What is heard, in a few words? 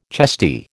"chesty", American